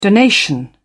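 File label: "donation", British English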